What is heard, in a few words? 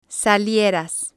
"salieras", Spanish